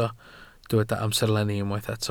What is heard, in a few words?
"amserlenni", Welsh